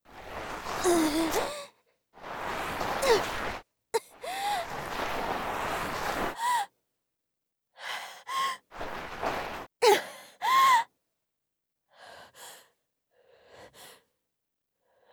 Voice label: 這いずらせてこっちおいで; 効果音あり

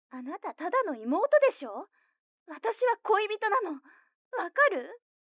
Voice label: 彼女の電話台詞, ASMR

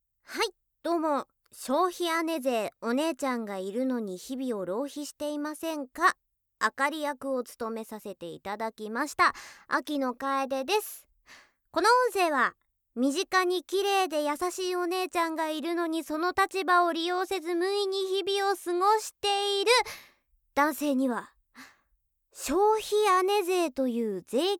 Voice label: PRボイス

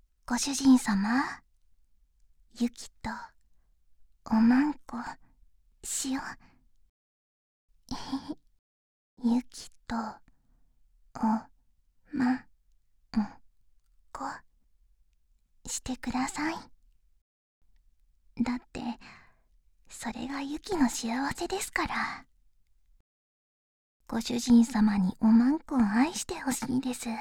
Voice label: おま○こしよ♪ はにかみ; 夕貴

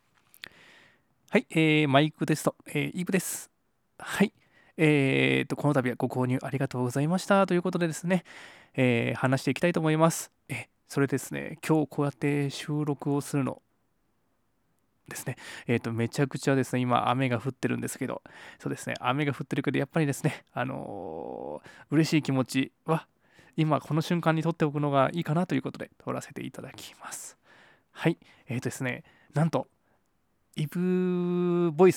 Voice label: 収録前 フリー トーク; 初レビューありがとうございます！！

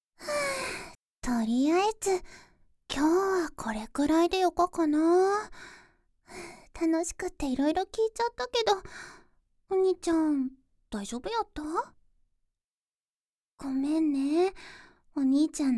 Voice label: 疲れた身体ばマッサージしてあげるけんね

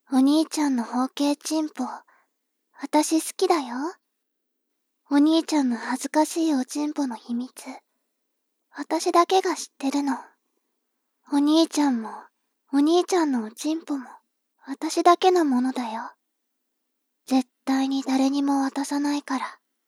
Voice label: 妹 台詞

